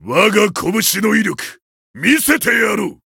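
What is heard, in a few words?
Voice variable chant, Japanese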